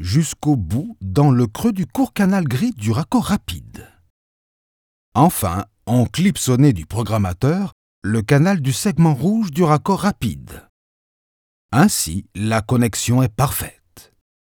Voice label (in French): e-Learning Raccorder Tuyau